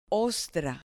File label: "ostra", Spanish